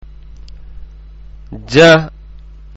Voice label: Jeem, arabic letter sounds